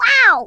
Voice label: "Brilliant", Brooklyn; Worms Armageddon